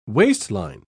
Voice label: "waistline", pronounce